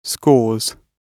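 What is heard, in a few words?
"scores", British English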